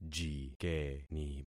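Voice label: "jikeni", concatenated syllables; Affixing experiment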